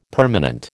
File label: "permanent", American